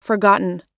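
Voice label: "forgotten", US English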